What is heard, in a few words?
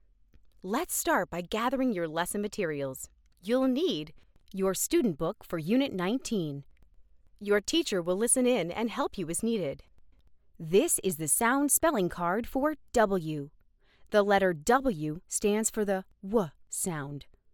e-learning